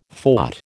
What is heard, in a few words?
"fought", American